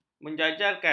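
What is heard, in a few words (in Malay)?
"menjajarkan", Malay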